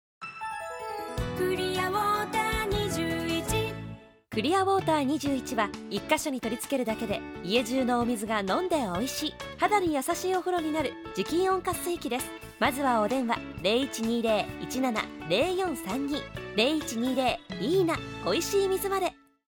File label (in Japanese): ラジオCM20秒; クリアウォーター21